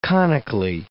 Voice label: "conically", English